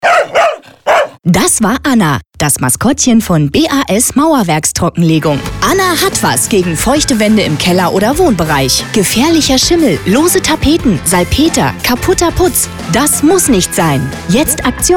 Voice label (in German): radiospot; mauerwerkstrockenlegung